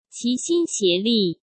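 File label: "齐心协力", Mandarin Chinese; chinese-flashcards; hsk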